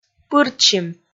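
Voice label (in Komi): "пыртсим", Komi, female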